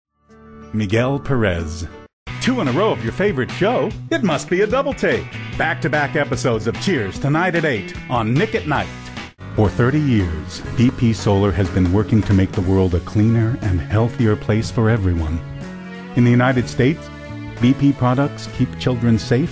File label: Commercial